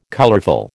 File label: "colourful", American